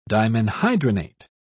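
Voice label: "dimenhydrinate", British English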